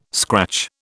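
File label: "scratch", British English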